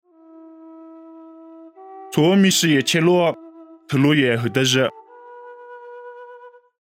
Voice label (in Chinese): 凉山彝语; 地中海特罗亚